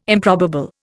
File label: "improbable", Indian